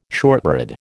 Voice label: "shortbread", American